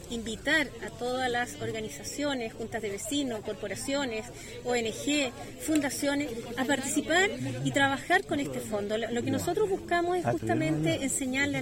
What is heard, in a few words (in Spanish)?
Cuña; Fondo Medio Ambiente; fondo de proteccion del medio ambiente y educacion ambiental